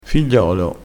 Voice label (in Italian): "figliolo", crespinese